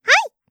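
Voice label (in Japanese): "はい！", 日本語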